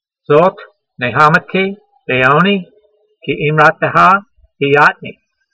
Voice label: voice, hebrew language; Psalm 119:50; zot neyhamatee ve'own'ee kee eemrateha heeyatnee